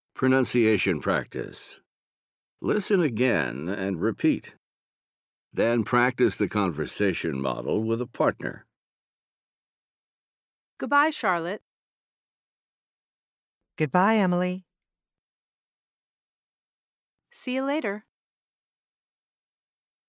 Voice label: Pronunciation Practice; Connectivity Foundation